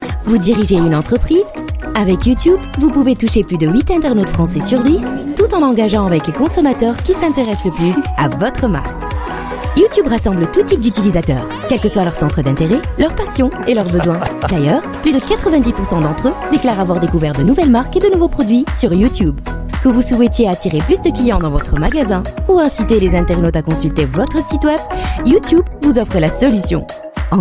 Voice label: Arabic female ad marketing French; Google

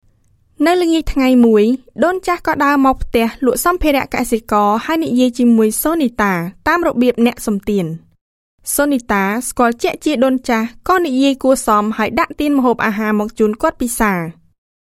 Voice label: Khmer Female Voice Sample

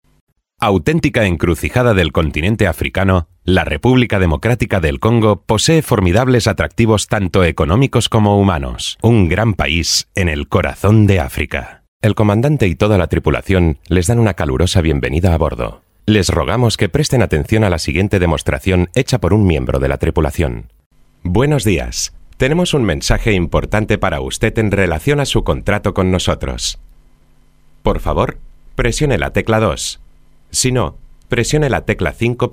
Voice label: Spanish Male CASTELLANO IVR Narration

